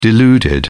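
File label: "deluded", British English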